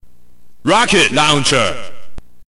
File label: narrator